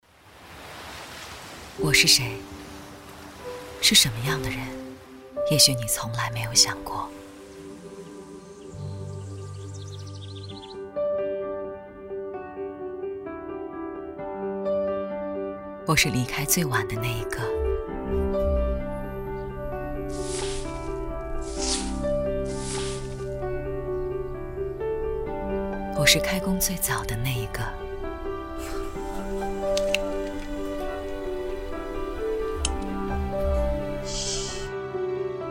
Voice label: 旁白 深沉; 我是劳动者

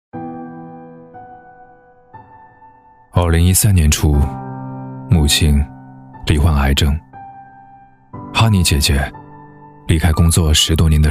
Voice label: 其他 微电影 伤感内心独白

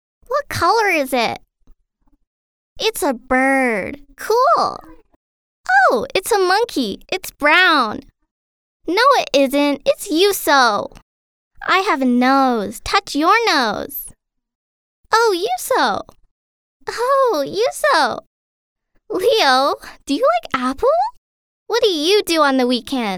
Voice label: 外语 美式英语 女孩模仿, 外籍女英

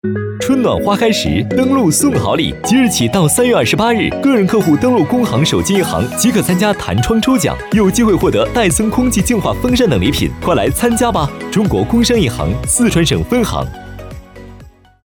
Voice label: 男国 广告 银行 欢快; 中国工商银行

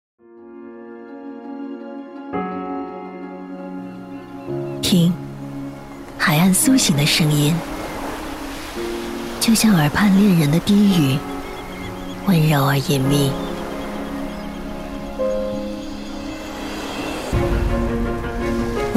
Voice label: 女 宣传片 旅游 旅游城市宣传片 沉稳

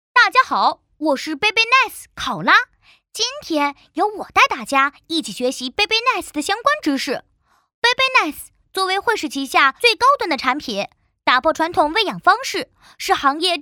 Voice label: 童声 男童; 惠氏

